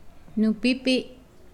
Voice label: Nawat palabras hermana mayor; pipil

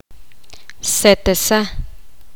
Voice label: poqomam redondo circular

